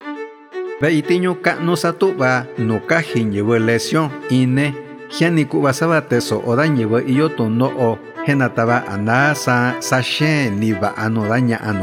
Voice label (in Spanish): MIXTECO